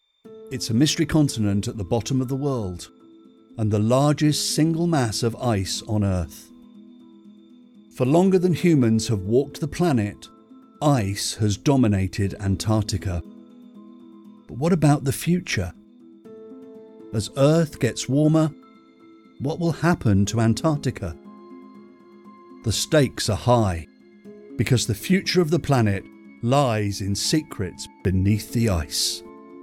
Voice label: documentary